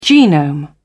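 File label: "genome", British English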